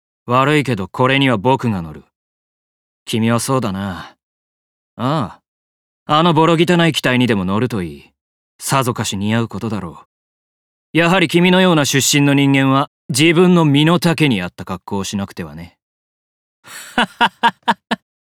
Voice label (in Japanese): 高慢20代